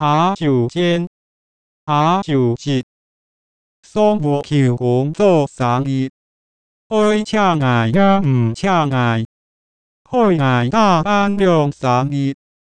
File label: Hakka f